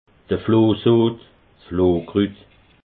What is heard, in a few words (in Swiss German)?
"flohsoot", alsace